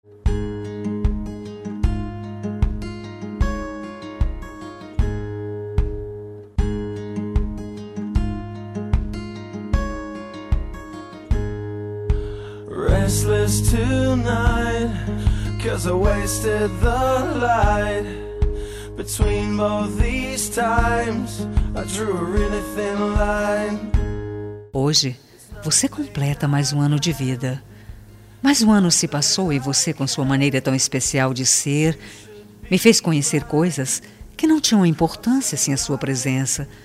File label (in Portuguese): ANIV ROMANTICO NEUTRO FEM